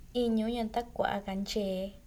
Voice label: Refuerzo, San Juan Mixtepec San Juan Cahuayaxy

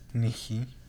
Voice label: Celula, San Martin Peras Ahuejutla

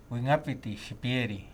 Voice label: Refuerzo, Purhepecha

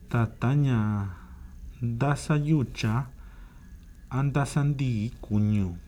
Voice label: Relleno dermico, San Juan Mixtepec Yucunani

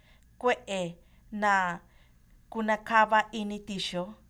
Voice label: Bacteria, San Agustin Atenango

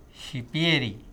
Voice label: Antibiotico, Purhepecha

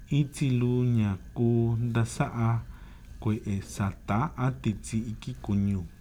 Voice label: Bacteria, San Juan Mixtepec Yucunani

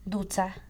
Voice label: Roncha, San Juan Mixtepec Santa Cruz

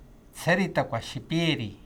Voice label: Dosis, Purhepecha

